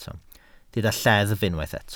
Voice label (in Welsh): "lleddf", Welsh